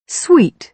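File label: "sweet", British English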